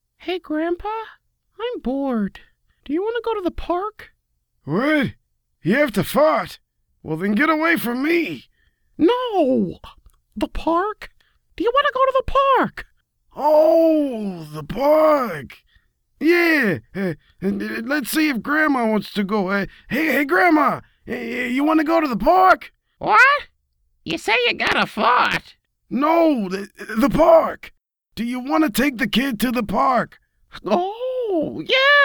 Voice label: Cartoon Voice